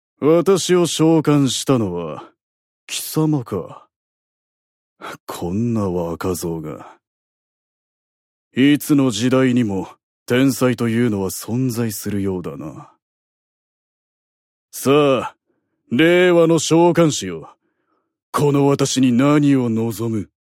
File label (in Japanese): アニメ